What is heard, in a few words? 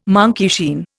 "monkeyshine", Indian